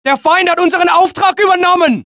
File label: German; mohaa